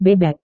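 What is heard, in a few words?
"bebek", Indonesian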